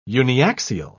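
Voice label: "uniaxial", British English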